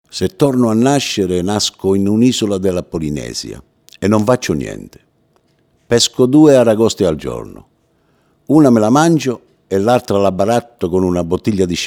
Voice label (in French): Voix italien